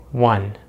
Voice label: "one", American English; Vowels in American English; easypronunciation